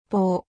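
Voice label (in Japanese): "ぽ", 日本語; 五十音基礎聽解題目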